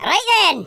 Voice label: yes sir, Scotsman; speech